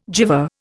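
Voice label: "gyver", Indian English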